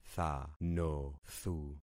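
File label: "thanothu", concatenated syllables; Affixing experiment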